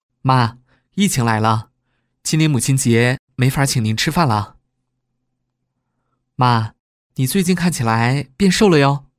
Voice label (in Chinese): 男 角色 青年儿子; 年轻活力 素人自然